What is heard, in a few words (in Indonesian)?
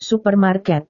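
"supermarket", Indonesian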